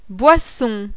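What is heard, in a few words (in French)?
"BOISSON", French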